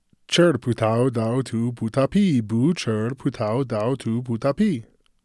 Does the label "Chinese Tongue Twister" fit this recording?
Yes